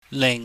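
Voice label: "lihng", Cantonese, low falling tone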